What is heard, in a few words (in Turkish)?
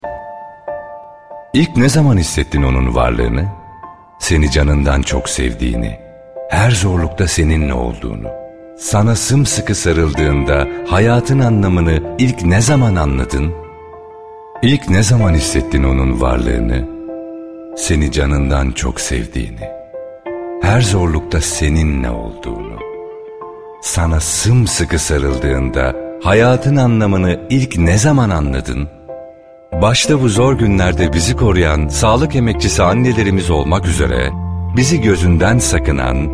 reklam drama; cevahir